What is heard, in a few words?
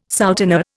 "souteneur", Indian